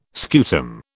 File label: "scutum", British